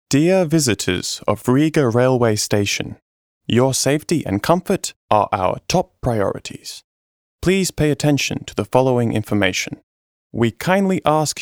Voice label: ENG Infografika